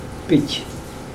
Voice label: pig, Mokilese

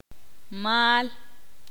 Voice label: ixil; uno

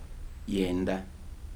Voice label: "nine", Kibembe

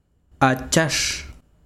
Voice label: besiro hacha, chiquitano

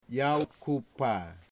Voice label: "house wall", Wamut

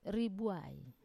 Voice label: "ribuai" kind, Wamesa

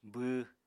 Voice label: "bww", Apatani

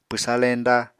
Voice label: "pwsa leñda", Apatani

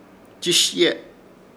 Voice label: "Chiishye", Ishir Chamacoco; pegarse

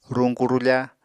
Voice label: "ruñku-rufa", Apatani

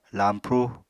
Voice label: "lañpru", Apatani

